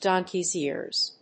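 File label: "donkeys years", English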